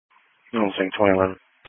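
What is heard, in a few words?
Police Patrol Dispatch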